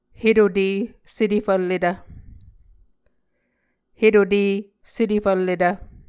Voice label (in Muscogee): "hetute setefvlletv", Muscogee